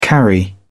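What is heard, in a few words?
"carry", English, British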